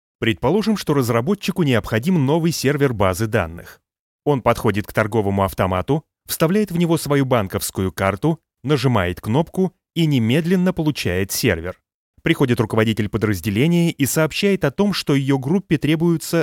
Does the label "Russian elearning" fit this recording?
Yes